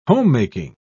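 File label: "homemaking", British English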